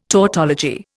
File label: "tautology", Indian